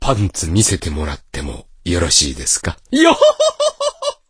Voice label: voice, dubbing